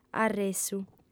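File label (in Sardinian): "arressu", female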